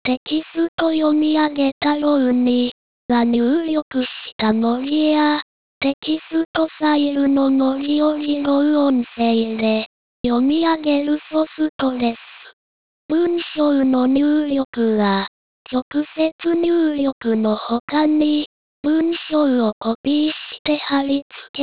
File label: lady